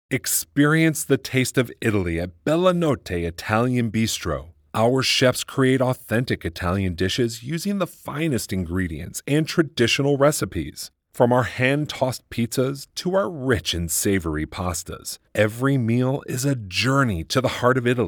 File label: Italian bistro